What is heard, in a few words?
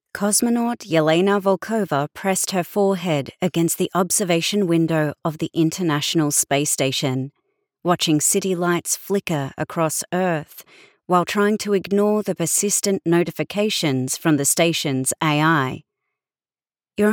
Audiobook Fiction Sci Fi Comedy Australian American AI Eastern European Russian